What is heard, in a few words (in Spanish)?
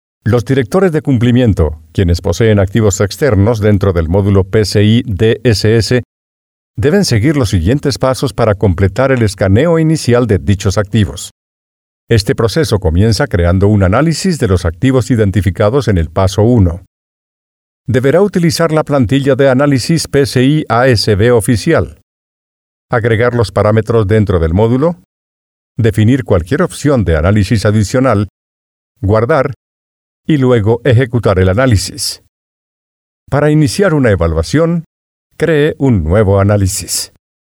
spanish elearning